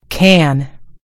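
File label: "can", American English; gcompris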